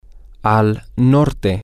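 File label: to the north, Spanish, male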